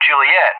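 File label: "Juliett", English; JTAC; Alphabet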